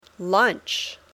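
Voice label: "lunch", English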